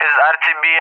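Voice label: "is RTB", English, RUSSIA; Messages; DCS; Allied Flight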